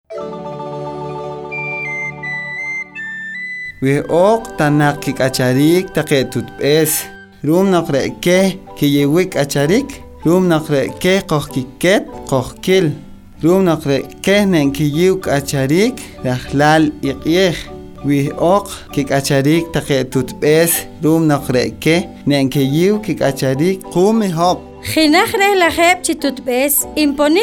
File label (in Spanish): Pocomchi; salud Materna